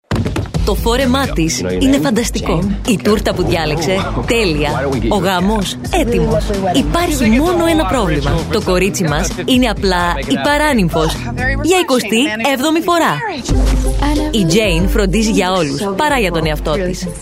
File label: greek movie trailers